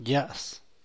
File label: "ya's", San Mateo Macuilxochitl Zapotec, brown; moreno